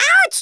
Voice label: "OUCH", German; Worms Armageddon

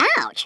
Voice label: "OUCH", Stiff Upper Lip

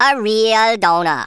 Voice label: "bummer", Rasta

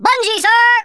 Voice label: "bungee", Drill Sergeant; Worms Armageddon

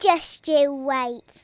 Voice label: just you wait, Kidz; Worms Armageddon

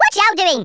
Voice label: "stupid", Brummie; Worms Armageddon

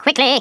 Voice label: hurry, Stiff Upper Lip

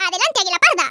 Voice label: orders, Hispanic; Worms Armageddon

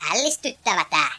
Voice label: "amazing", Finnish; Worms Armageddon

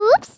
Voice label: "OOPS", Greek; Worms Armageddon

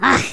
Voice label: "Oops", Angry Scots; Worms Armageddon

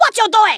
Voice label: "oi nutter", Kamikaze speech; Worms Armageddon

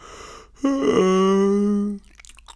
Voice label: "boring", Danish; Worms Armageddon; Danish-Pyrus

